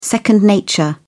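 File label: "second nature", British English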